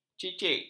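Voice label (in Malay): "cicik", Malay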